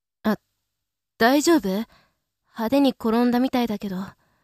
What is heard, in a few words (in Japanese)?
syounen